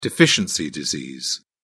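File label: "deficiency disease", British English, dictionary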